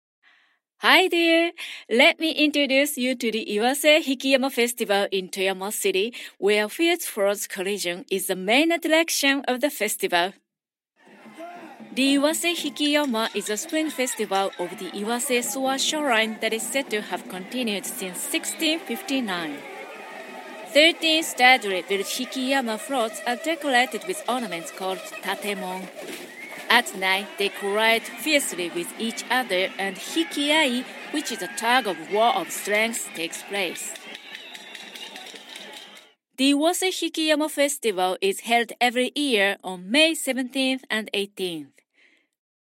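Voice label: english commercial, Femelle; Démo commerciale; japanese voice actors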